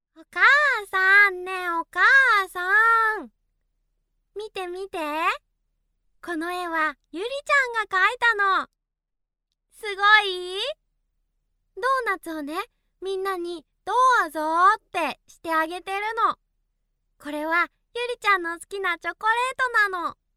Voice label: female japanese voice over character

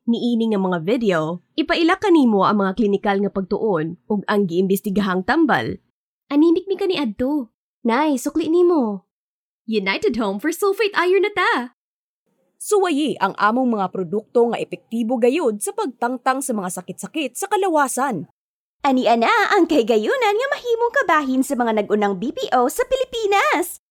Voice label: female cebuano voice over narrative

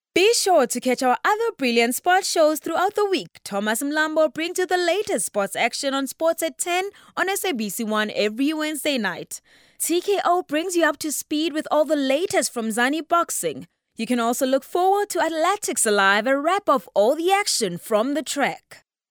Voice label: female african voice over upbeat, african english

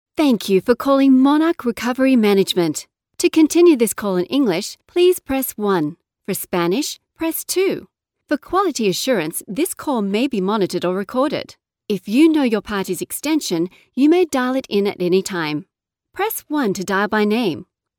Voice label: female australian voice over upbeat

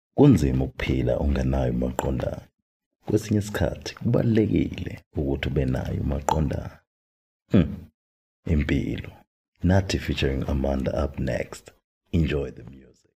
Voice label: male zulu voice over talent narrative

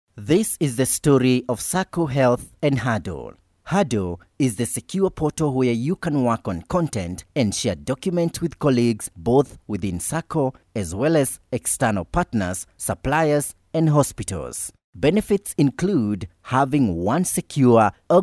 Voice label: male swahili voice over english

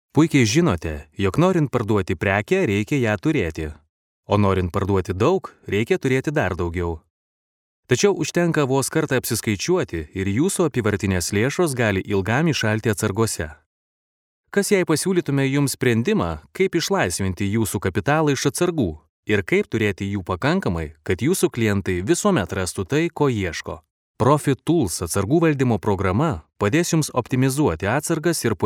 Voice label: male lithuanian voice over talent narrative

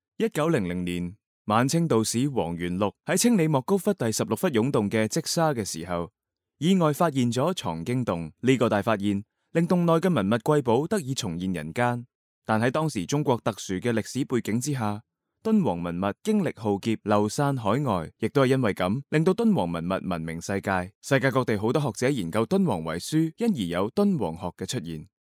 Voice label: male cantonese voice over talent narrative

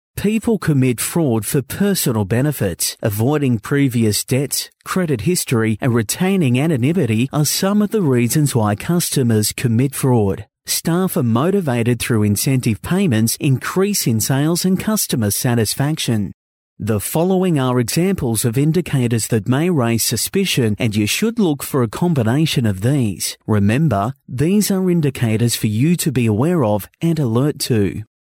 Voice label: male australian voice over narrative

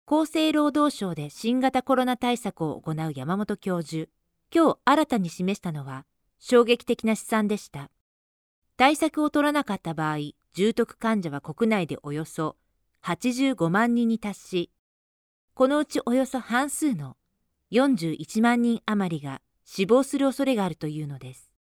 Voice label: female japanese voice over narrative

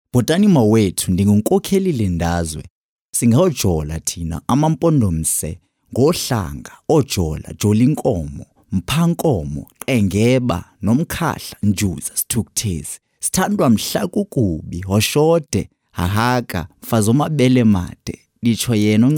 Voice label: male xhosa voice over talent narrative

